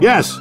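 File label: "yes", English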